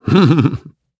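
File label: Vox Happy, English; kingsraid; voices heroes en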